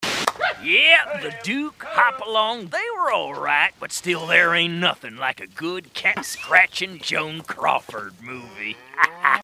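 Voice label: Movie-Loving Cowboy, characters impressions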